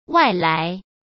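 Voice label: "外来", Mandarin Chinese; hsk; chinese-flashcards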